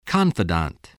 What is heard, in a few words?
"confidant", english